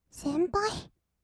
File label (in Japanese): 先輩, kawaii voice; ASMR; 二人称; 1200 kawaii voice!!